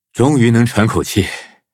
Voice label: levelup, zh-hant; Cookie Run